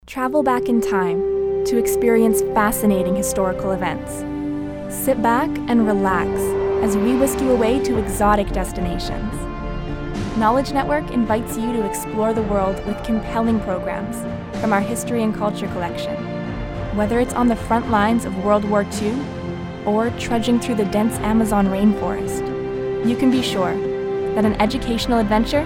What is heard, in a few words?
Narration VO